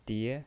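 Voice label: "die" Mandarin Chinese, second tone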